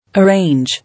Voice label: "Arrange", English